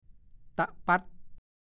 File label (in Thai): ตะปั๊ด, ภาษาโคราช